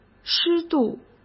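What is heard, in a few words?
"湿度", Mandarin Chinese; chinese-flashcards; hsk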